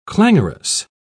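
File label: "clangorous", British English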